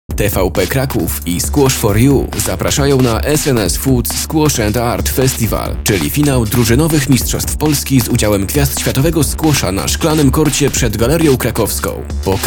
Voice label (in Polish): demo reklama